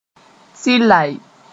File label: "tɩlae", moore